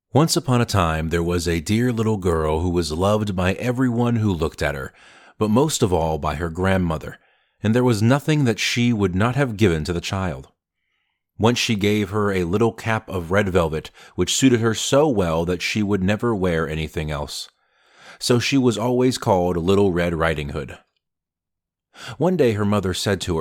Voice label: childrens